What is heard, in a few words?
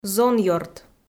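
"зонъёрт", Komi, female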